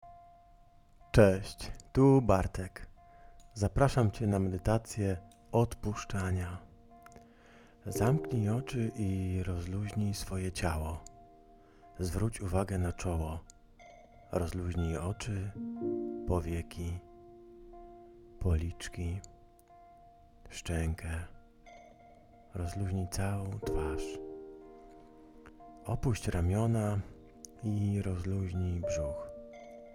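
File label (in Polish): Medytacja odpuszczania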